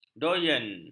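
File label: "doyen", Malay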